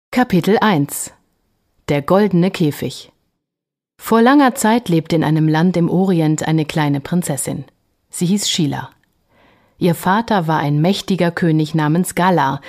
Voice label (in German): hoerbuch